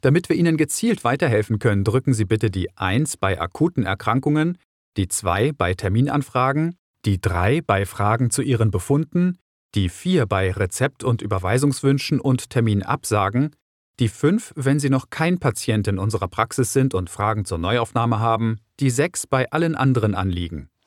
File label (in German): beispiel telefonansage